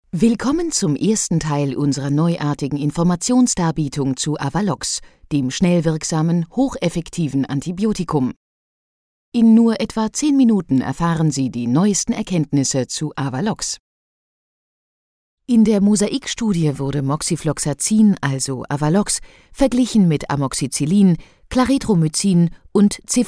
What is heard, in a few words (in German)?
Werbung Internetwerbung; Avalox